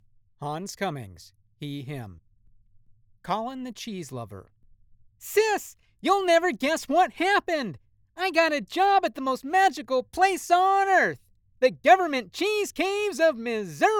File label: Character Samples